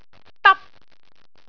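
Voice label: "dap1", Cantonese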